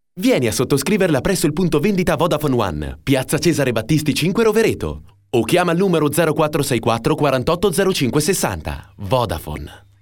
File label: spot